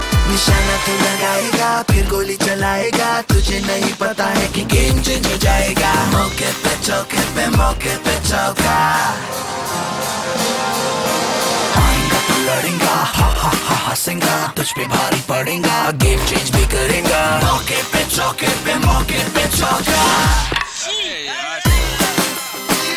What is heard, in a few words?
Pepsi Ad